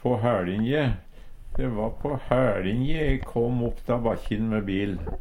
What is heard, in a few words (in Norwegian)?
på hæLinje, Numedalsmål; så vidt noko gjeng; De va på hæLinje e kom opp da bakkjin me bil.; Uttrykk